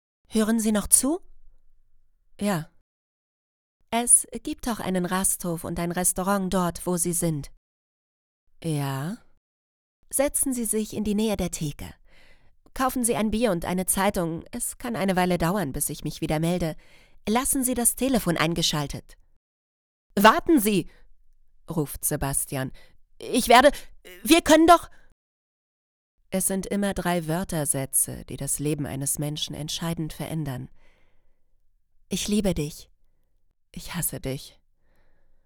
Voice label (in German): horbuch krimi